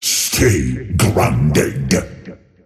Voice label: attack pain; dota; earthshaker; arcana

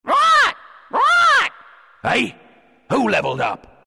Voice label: announcer level up who, pirate; dlc workshop; capn

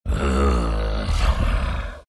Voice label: anger; dota; beastmaster

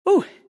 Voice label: happy; dota; tinker

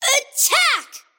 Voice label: attack pain; kidvoker; dota; invoker

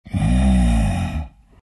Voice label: treant happy

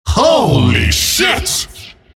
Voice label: announcer kill holy; killing spree; dota; announcer dlc monkey king

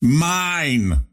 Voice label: lasthit pain; ringmaster

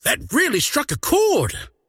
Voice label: croak big; dota; largo

